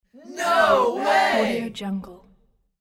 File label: teen small group no way